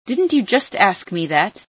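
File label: just asked, female scientist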